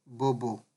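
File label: "bobo", naija, male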